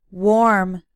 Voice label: "warm", English